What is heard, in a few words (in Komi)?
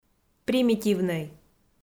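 "примитивнӧй" Komi, female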